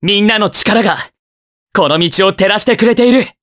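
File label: Vox Victory, Japanese; Kasel; kings raid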